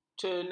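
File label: "cena", Malay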